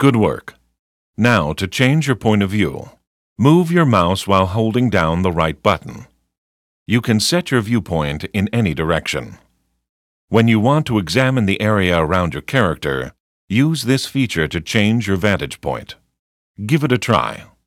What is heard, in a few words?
tutorial voice; lineage2